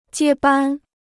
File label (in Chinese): "接班", Chinese